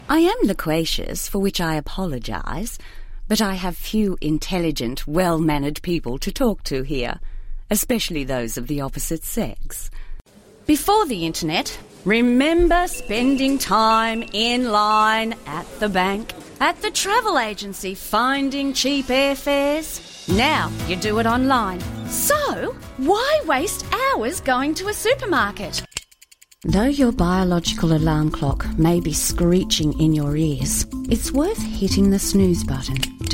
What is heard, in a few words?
commercial